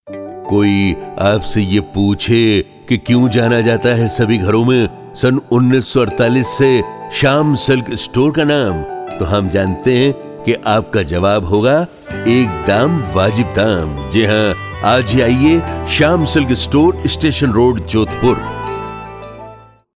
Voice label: TVC hindi

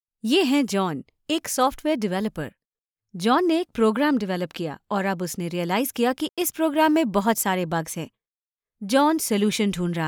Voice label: Hindi Elearning Corporate

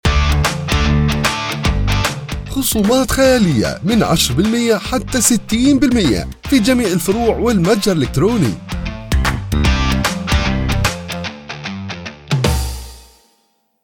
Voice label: Saudi

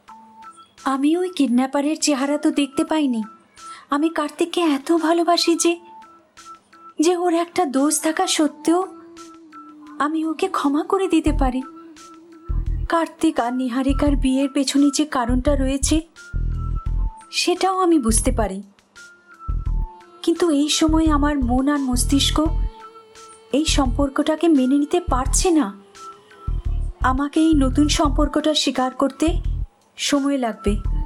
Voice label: Bengali

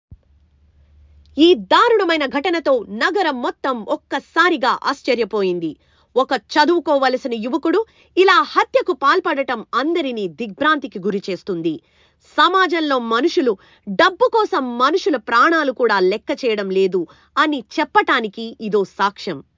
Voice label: Journalist Telugu

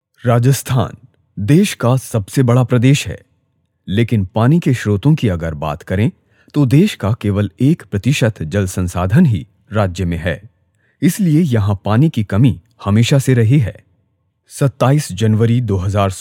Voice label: Rajasthan Hindi

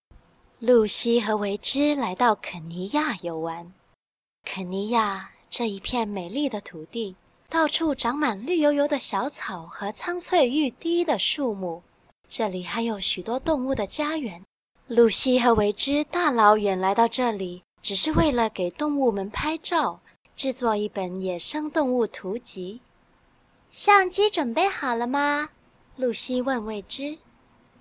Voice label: story mandarin

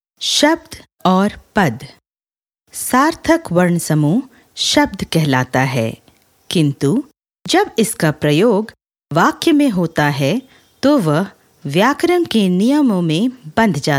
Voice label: elearn hindi

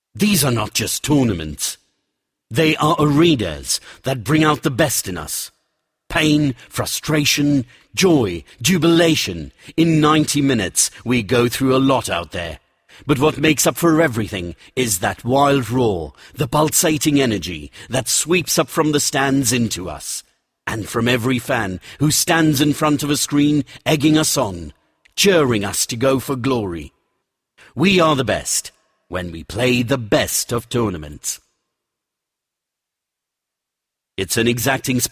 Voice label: AV uk

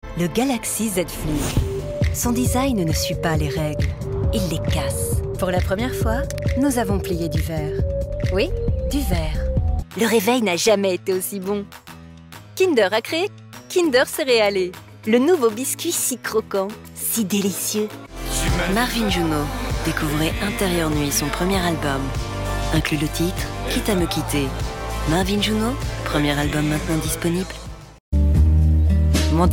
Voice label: female french

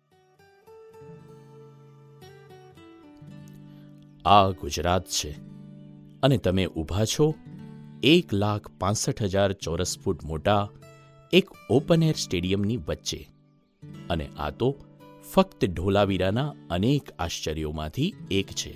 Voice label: GUJRATI; DHOLAVIRA

